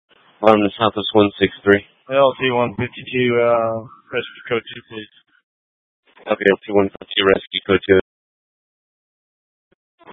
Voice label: Phx PD Fire Dispatch